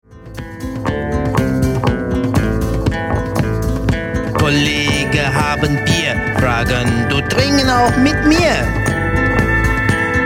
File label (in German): Türkisch Mann